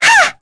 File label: Mirianne Vox Attack, voices heroes en; kingsraid-audio